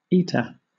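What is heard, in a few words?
"eta", British English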